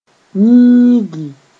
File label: "yĩigi", Mooré